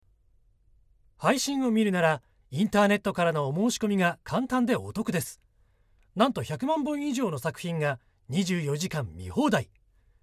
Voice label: male